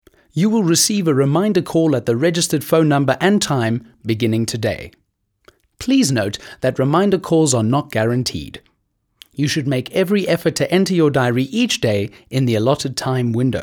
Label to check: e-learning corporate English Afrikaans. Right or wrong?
Right